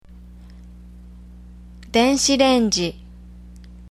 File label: microwave, japanese; cookware